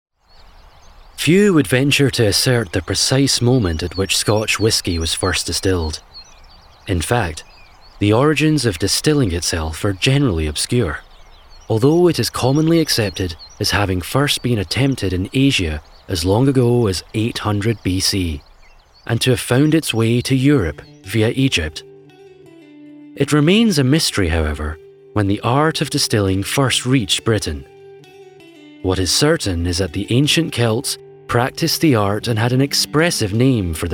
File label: Corporate Narration; Round Island Scotch Whiskey